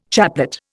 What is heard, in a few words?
"chaplet", Indian